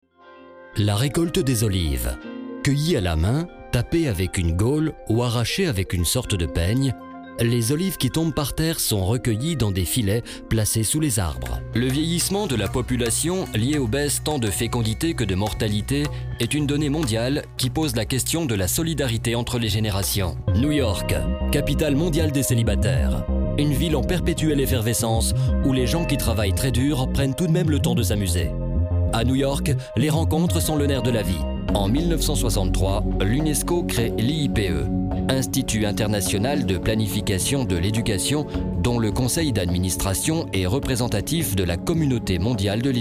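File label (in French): French, male, commercial; voice demos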